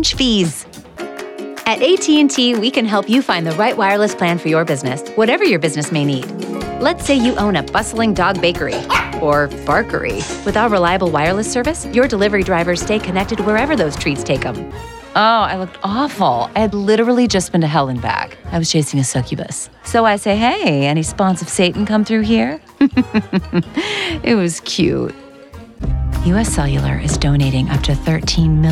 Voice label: Voice Over Commercial